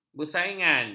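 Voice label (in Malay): "bersaingan", Malay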